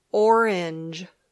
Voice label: "orange", English